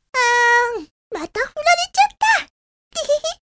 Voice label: 小さなロリ系幼女; パズルＤＥわっほーう！; 美紗; 「あ〜ん、また振られちゃった・・・テヘヘ♪」

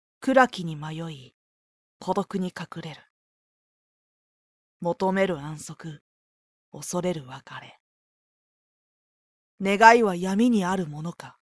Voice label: 少年の語り; サクトリア; ハユ; 「暗きに迷い、孤独に隠れる・・・求める安息、恐れる別れ･･･願いは闇にあるものか

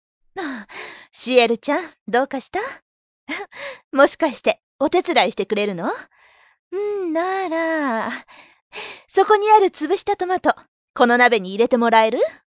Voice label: さばさばした母親; リヴィエールの羽根; レンテ; 「シエルちゃん、どうかした？もしかしてお手伝いしてくれるの？なら、そこにある潰したトマト〜･･･

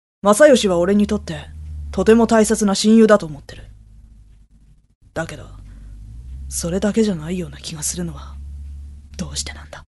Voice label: 芯の熱さを秘めた少年; Secret memory; 坂入大志; 「雅義は俺にとって、とても大切な親友だと思ってる。 だけど・・・それだけじゃない様な気がするのは・・・

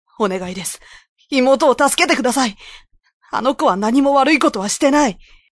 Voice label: 気真面目な兄; ヒュイル; 「お願いです･･･妹を助けて下さい。 ･･･あの子は何も悪い事はしてない」

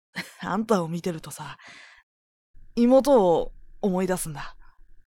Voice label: 弱々しい感じの兄; 天地悠々; シッド; 「アンタを見てるとさ･･･妹を思い出すんだ」

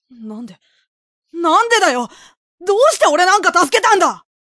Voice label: ひねくれた感じの少年; 仙術師は恋におぼれる; 香維; 「なんで・・・なんでだよ、どうして俺なんか助けたんだ！」

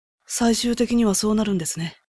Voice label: 無表情でクールな人; 龍と麒麟が舞い踊る; 「最終的にはそうなるんですね･･･

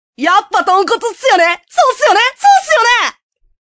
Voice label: 明るく元気溌剌な少年; 僕の高校生活１年目; 橘龍之介; 「やっぱとんこつっすよね！ そうっすよねそうっすよね！？

